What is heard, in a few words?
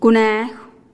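"kuneejuh", rabbit, Teotitlán del Valle Zapotec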